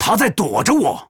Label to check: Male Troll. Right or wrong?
Right